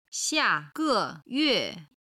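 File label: "xiage xingqi", Chinese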